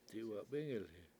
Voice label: I will practice, Washo; diwatbIngilhi7